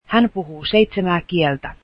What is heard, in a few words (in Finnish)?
finnish she speaks seven languages, Finnish